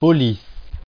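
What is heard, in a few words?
"police", French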